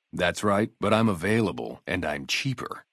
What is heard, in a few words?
male adult gambler asks; FNV